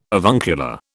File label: "avuncular", British